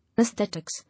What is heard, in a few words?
"Aesthetics", English